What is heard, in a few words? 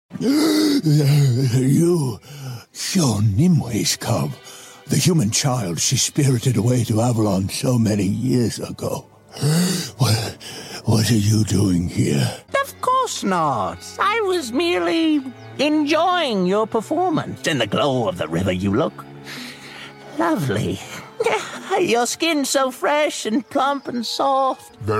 animation